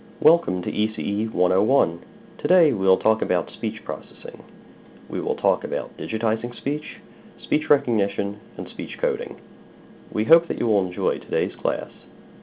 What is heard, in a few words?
Welcome to ECE 101, speech segment; University of Kansas - ECE 101; Multimedia Demo; Welcome to ECE 101. Today, we will talk about speech processing.